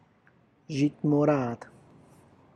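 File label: purple onion, Tlacochahuaya Zapotec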